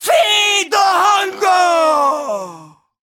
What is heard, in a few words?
Female Taunt, Cannibal, dialogue